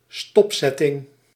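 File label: "stopzetting", Dutch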